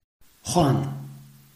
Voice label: "huan4", Chinese